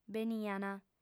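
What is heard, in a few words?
"beniant", female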